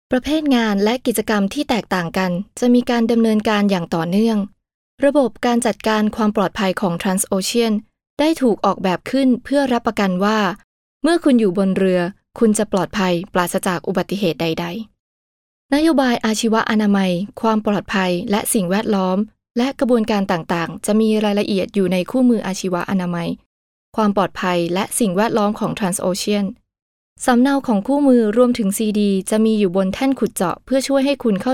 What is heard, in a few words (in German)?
Film Sprecherin Thai; Nachvertonung Film